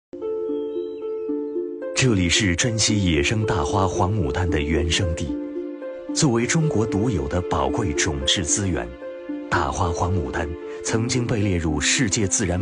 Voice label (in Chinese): 纪录片 大花黄牡丹; 花开中国